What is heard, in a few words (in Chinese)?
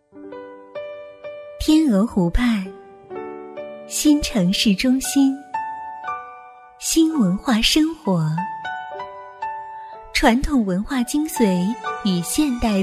girl house, guanggao; Chinese; suhuanwenxin